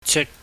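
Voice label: "chik", Cantonese, mid tone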